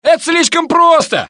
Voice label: Russian; mohaa

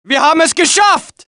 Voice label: German; mohaa

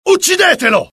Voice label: alert, dialogue IT; mohaa; attack

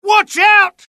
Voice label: alert, dialogue UK; mohaa

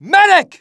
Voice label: panic; mohaa; dialogue

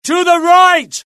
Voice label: alert, UK; mohaa; dialogue

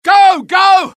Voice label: alert, dialogue UK; mohaa

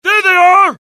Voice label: alert, dialogue generic US; mohaa

